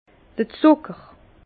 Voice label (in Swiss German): "zucker", Bas Rhin; le sucre; vin